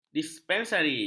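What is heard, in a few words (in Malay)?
"dispensari", Malay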